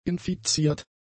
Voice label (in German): "infiziert", German; adjectives